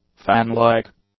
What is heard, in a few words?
"fan-like", english; adjectives